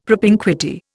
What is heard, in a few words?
"propinquity", Indian; Dictionary